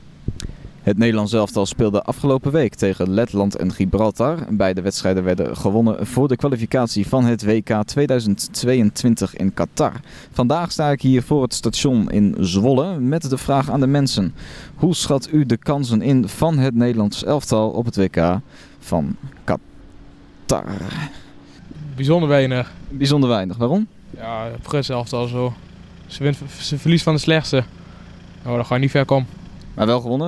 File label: voxpop; Qatar; weinig kans voor nederlands elftal wk 2022